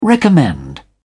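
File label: "recommend", British English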